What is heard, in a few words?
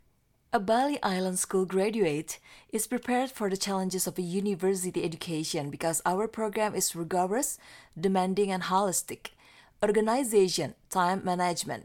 formal English